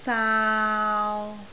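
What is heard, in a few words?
"saau3", Cantonese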